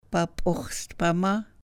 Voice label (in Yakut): "pap'ux̱stpama", sahaptin